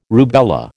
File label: "rubella", American